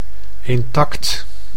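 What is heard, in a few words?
"intact", Dutch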